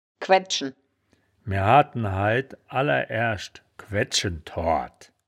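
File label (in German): "kwedd schen", Wittlicher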